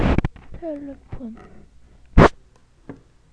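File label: "telephone", spoken word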